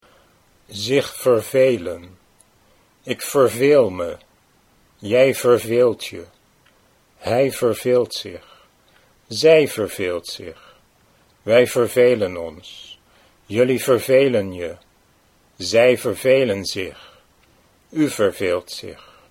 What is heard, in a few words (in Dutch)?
zich vervelen, Dutch